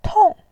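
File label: "tong", Mandarin Chinese, fourth tone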